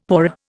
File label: "paua", Indian; Dictionary